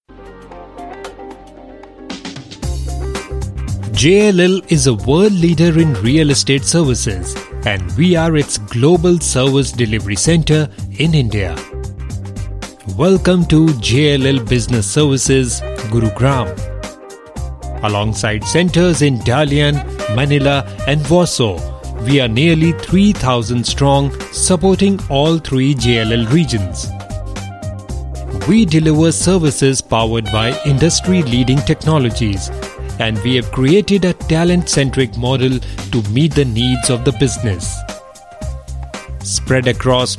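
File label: Hindi English corporate; JLL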